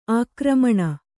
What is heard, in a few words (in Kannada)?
"ಆಕ್ರಮಣ", Kannada